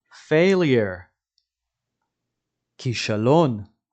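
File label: "failure" English, "כישלון" Hebrew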